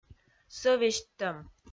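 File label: "сӧвесьттӧм", Komi, female; audio dict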